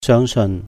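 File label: "sèung seun", believe; have faith in, Cantonese; 相信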